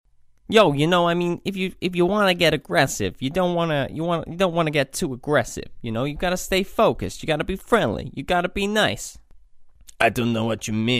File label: voices and accent sampler